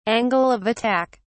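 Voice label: "angle of attack", British English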